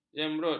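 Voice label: "jembrut", Malay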